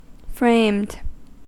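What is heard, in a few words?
"framed" English, US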